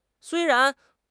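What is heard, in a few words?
"sui1 ran2", Mandarin Chinese; HSK2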